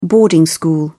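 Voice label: "boarding school", British English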